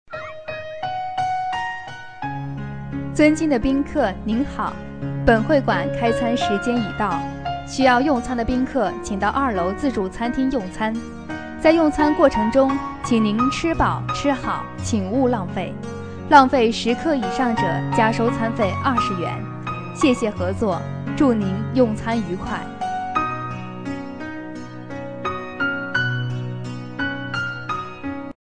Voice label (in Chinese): 话术 餐厅广播 清晰, 女